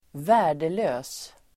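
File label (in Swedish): "värdelös", Swedish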